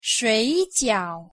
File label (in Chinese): "水饺", pronunciation zh